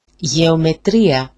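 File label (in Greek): "jeometria", Greek; γεωμετρία; geometry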